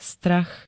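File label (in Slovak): "strach", Slovak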